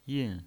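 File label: "yin" Mandarin Chinese pinyin voice, fourth tone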